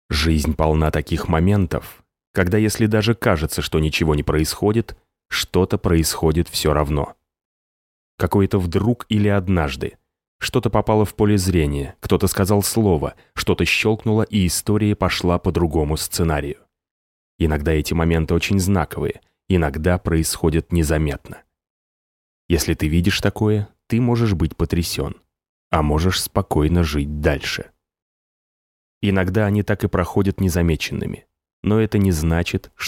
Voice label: russian continuity radio

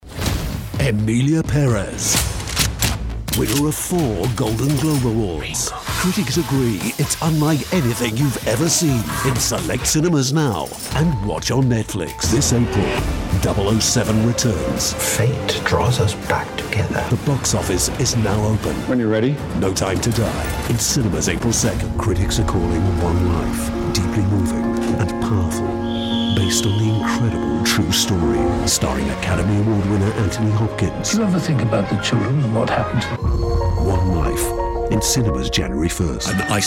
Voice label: trailer

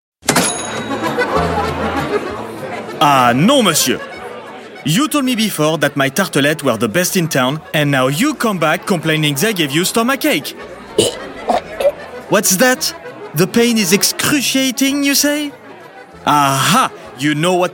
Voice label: french male characters en w fr

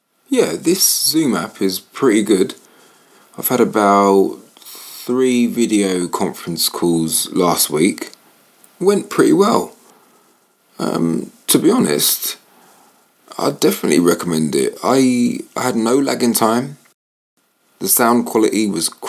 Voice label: london voice natural

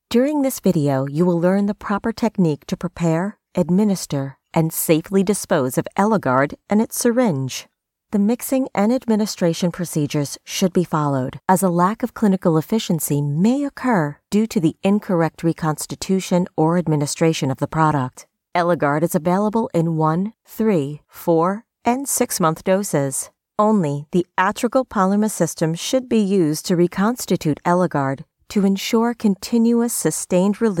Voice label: american voice elearning

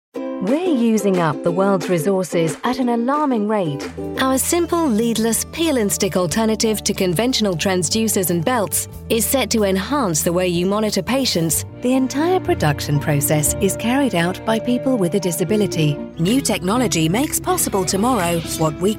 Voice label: corporate, Warm, Confident Voiceover

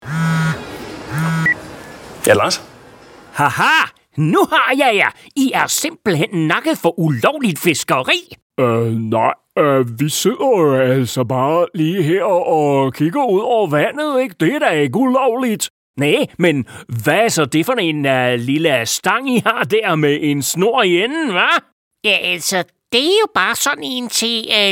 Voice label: danish male cartoon, Versatile Character Voice

